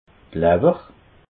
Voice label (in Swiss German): "lawwer", alsace